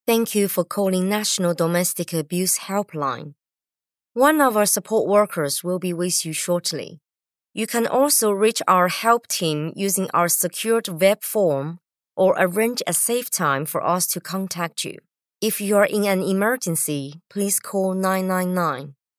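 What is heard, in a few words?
english interactive voice response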